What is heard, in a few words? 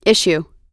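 "issue", US English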